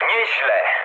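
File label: trick mood low, speech Polish; War Thunder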